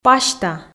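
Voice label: "пасьта", Komi, female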